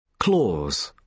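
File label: "clause", British English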